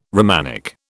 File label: "Romanic", British